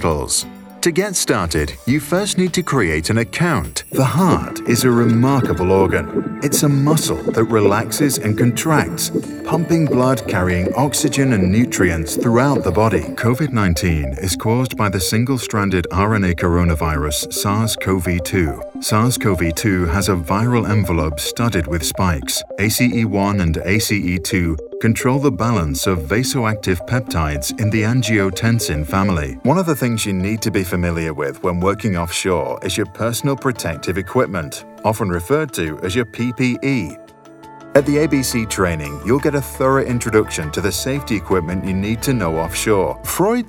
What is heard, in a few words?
e learning showreel